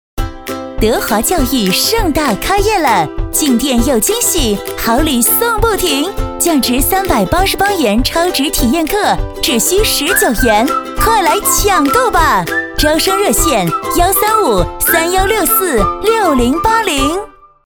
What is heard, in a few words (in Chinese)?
女 广告 促销 教育 活力; 德华教育